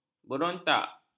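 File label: "berontak", Malay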